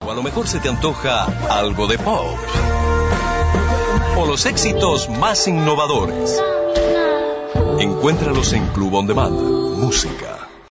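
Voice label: Latin American Spanish, commercial; GoLocalise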